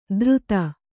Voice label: "ದ್ರುತ", Kannada

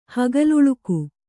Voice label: "ಹಗಲುಳುಕು", Kannada; hagaluḷuku; noun; a meteor.

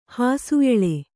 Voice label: "ಹಾಸುಎಳೆ", Kannada

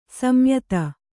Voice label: "ಸಂಯುತ", Kannada

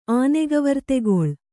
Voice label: "ಆನೆಗವರ್ತೆಗೊಳ್", Kannada

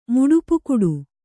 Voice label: "ಮುಡುಪುಕುಡು", Kannada

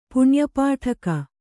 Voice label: "ಪುಣ್ಯಪಾಠಕ", Kannada

